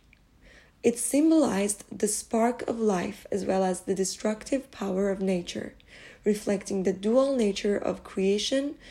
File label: Fire element, Audio Narration; ElementalMysteries